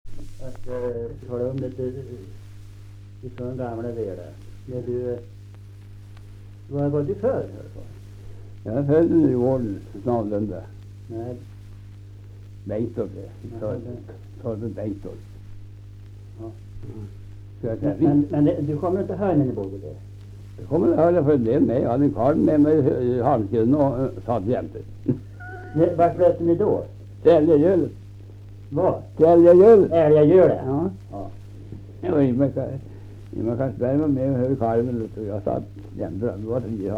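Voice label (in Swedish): Viby Närke, dialekter; Folkminnen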